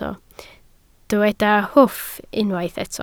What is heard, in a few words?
"hwff", Welsh